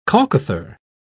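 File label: "colcothar", British English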